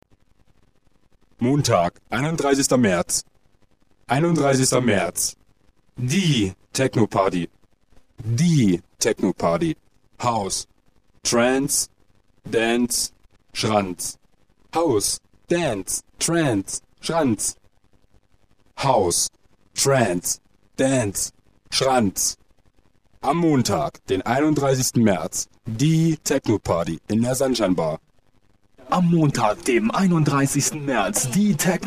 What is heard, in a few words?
RAW Material of a Radio Commercial; Kabul; Technoparty in der ShunShineBar